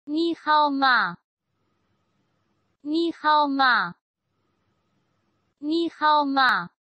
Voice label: Ni hao ma How are you in Chinese, Chinese Pronunciation